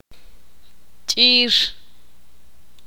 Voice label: "espina", Ixil